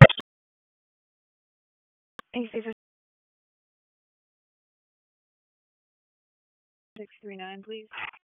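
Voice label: Police Southwest District Dispatch, p25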